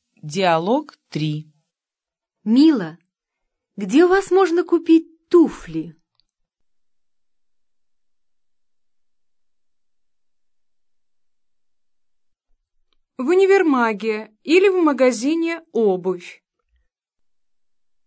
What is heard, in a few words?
dialog, russian